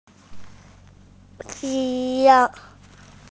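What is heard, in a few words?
"xu1 yao4", Mandarin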